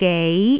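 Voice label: "gei", Cantonese, tone 2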